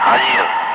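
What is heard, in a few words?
Russian speech, Ka-50 Wingman; Digits; DCS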